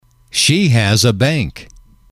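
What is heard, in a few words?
"she has a bank", English, male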